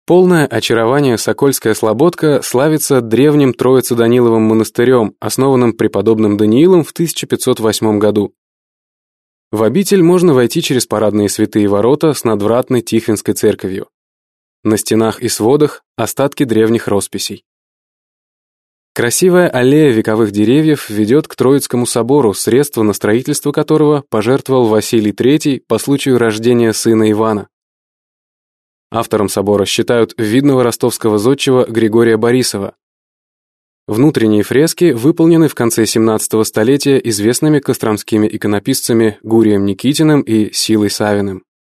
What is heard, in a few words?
russian male